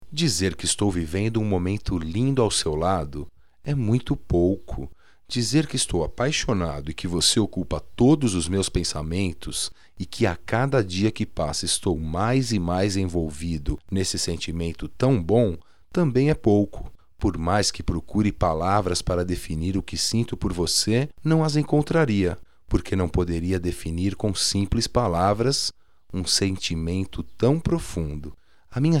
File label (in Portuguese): Amor definitivo, masc; Telemensagens 2017